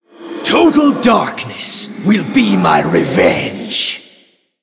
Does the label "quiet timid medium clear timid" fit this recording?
No